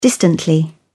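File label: "distantly", British English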